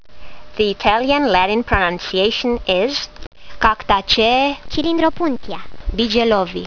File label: latin; Cylindropuntia bigelovii